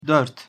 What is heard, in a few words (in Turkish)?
"dört", Turkish